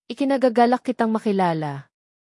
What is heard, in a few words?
"ikinagagalak", tagalog; tagalog phrases